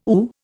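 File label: "ooh", Indian English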